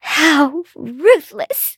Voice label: hit, en; Cookie Run